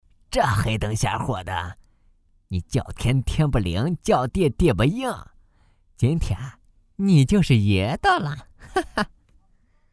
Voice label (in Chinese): 角色 痞子